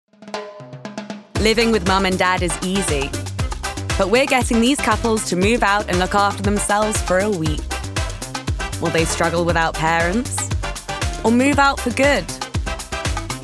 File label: Cheeky, Upbeat, Friendly, Promo, RP ('Received Pronunciation'); Hotel Of Mum & Dad